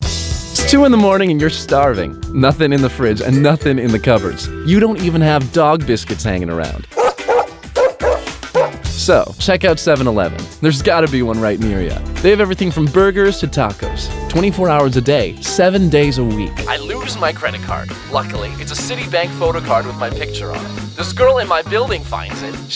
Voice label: commercial